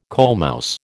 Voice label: "coalmouse", American